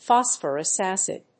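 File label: "Phosphorus Acid", English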